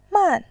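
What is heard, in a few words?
"man", Mandarin, tone 4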